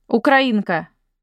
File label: Ukrainian Female